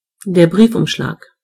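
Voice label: mail, German voices words; gcompris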